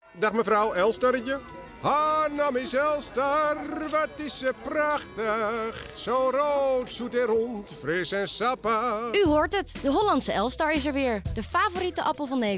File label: Radio Commercial